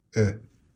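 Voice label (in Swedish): "ö", Swedish; ljudbank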